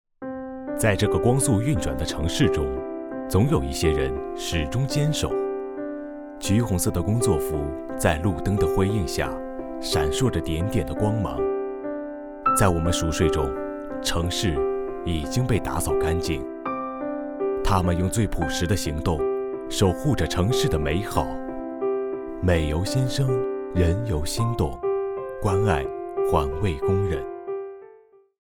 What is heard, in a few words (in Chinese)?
公益广告